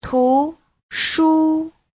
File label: "tu2shu1", Chinese